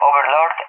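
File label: "Overlord", English, SPAIN; CallSign; DCS; Allied Flight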